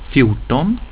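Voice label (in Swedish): "fjorton", Swedish; getal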